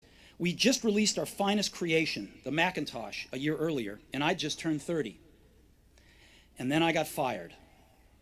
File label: Commencement Address; Steve Jobs; 2005; Stanford